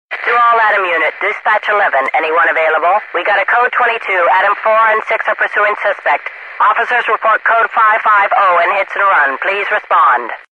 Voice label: police radio officer

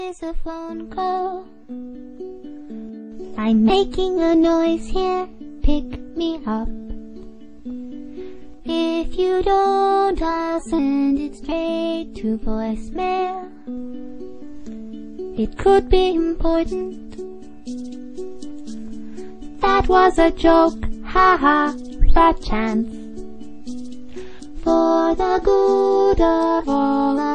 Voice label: phonecall; glados

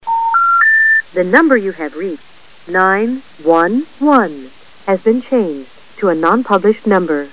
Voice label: 911 operator

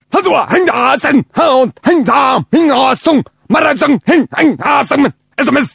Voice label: sms in japanese

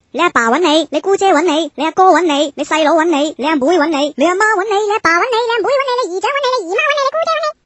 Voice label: funny chinese

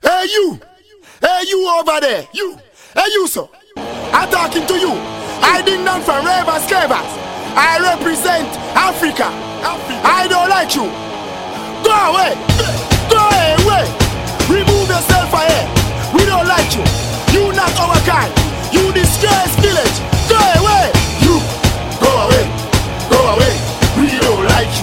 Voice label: ding dong go away

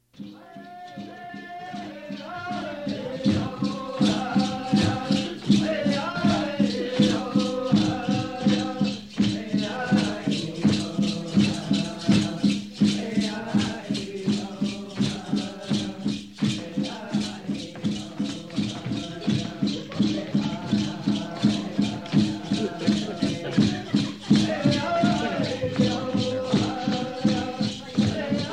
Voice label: 3d sound girl

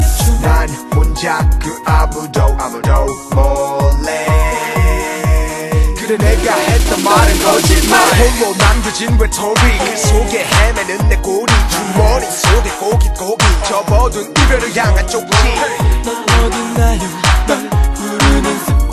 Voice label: rap

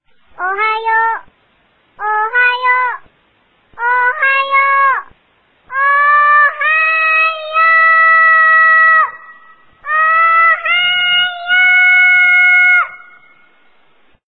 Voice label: funny japanese kid

